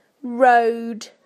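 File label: "road", British English; PictureDictionary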